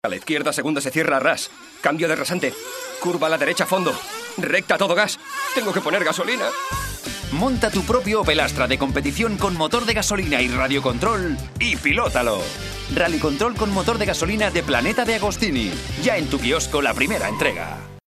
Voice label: male spanish toy comercial; ideasonora